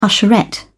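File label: "usherette", British English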